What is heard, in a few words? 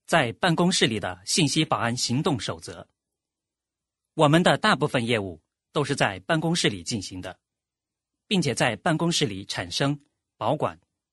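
Chinese, male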